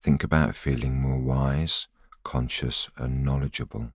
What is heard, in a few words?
voiceover